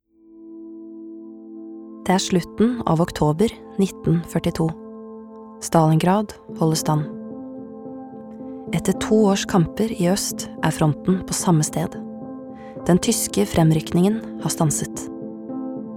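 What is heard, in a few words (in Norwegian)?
dokumentar, Norwegian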